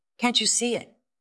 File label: VoiceActing es F